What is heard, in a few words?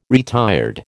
"retired", American